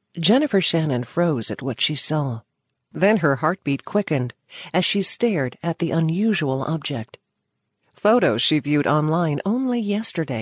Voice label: Mystery Thriller Suspense; Woman at the Garage Sale